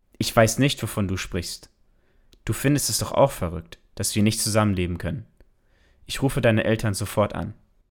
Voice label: German German Accent